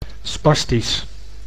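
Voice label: "spastisch", Dutch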